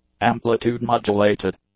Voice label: "amplitude-modulated", English; adjectives